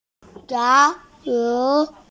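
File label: "ya2chi3", Mandarin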